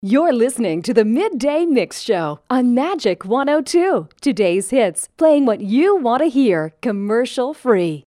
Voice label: radio liner